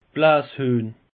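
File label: "blasshuehn", Alsatian, Bas Rhin; peche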